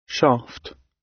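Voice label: "shaft", British English; Design and innovation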